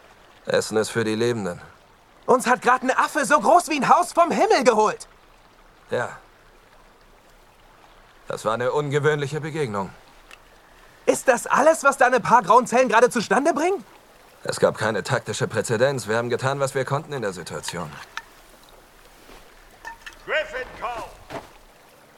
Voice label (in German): Synchron, maenner; Kong Skull Island